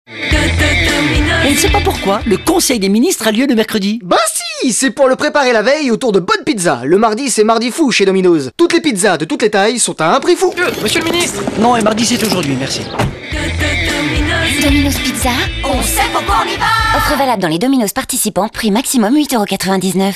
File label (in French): radio pub spot